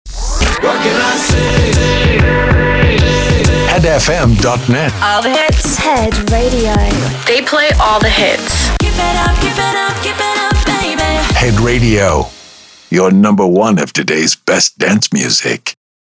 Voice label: Sweeper